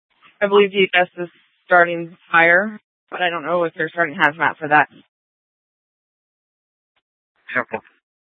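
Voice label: police dispatch, Scottsdale PD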